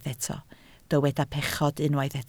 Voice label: "pechod", Welsh